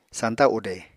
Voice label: "sañta ude", Apatani; Material Culture